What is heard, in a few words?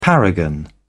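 "paragon", British English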